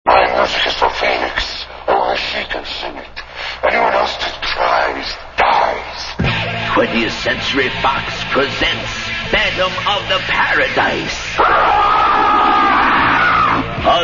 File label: Radio Spot; Phantom Of The Paradise